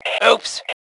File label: Ooops, Female; T2 Female 04